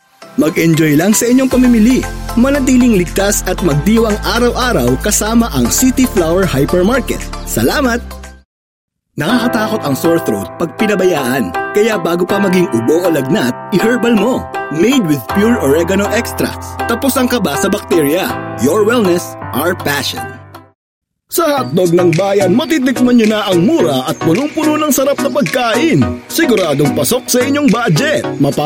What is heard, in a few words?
Tagalog